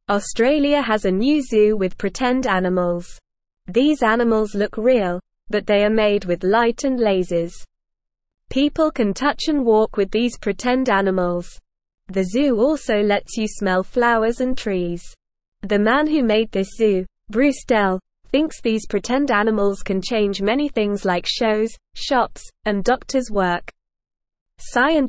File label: English Newsroom Beginner NORMAL Reading; Australias New Zoo Pretend Animals Made with Light and Lase